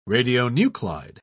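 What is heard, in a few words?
"radionuclide", British English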